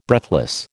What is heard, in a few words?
"breathless", American